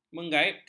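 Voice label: "mengghaibkan", Malay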